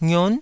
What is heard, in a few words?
"ngion9", taiwanese syllables